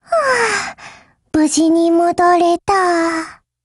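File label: operation over, Japanese; HK23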